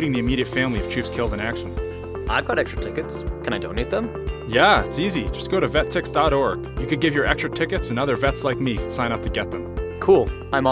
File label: What gives Male; Vet Tix